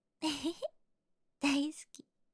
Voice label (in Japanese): ーんふふっ大好き, 彼女面システムボイス